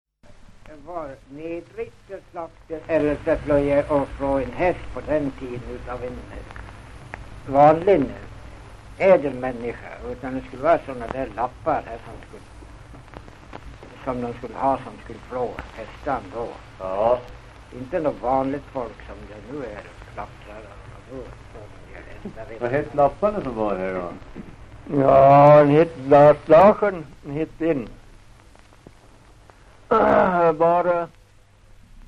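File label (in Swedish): Ovansjö Gästrikland, dialekter; Ovansjö GST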